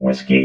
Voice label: "whiskey", robot